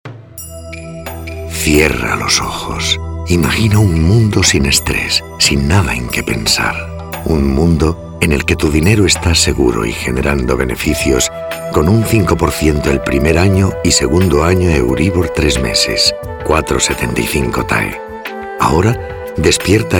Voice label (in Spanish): Masculina; Sonoarte